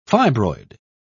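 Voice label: "fibroid", English, British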